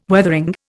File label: "Weathering", Indian